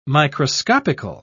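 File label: "microscopical", British English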